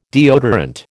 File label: "deodorant", American